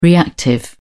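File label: "reactive", British English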